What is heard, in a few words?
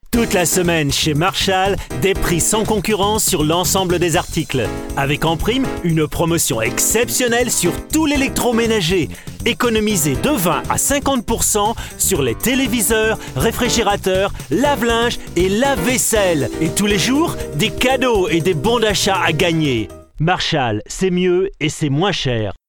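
Promo store, male voice over, French; Radio-Fernsehwerbung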